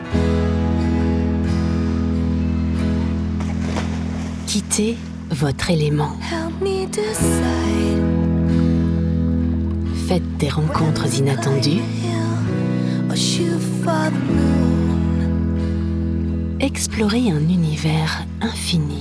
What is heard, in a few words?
SPOT; Catlante Catamaran